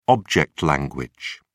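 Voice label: "object language", British English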